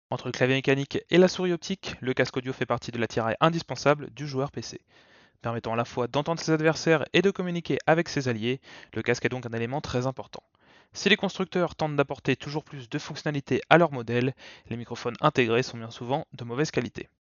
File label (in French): test son cloud II wireless